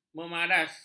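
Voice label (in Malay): "memaras", Malay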